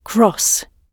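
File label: "cross", British English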